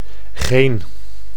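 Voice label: "geen", Dutch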